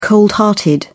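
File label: "cold hearted", British English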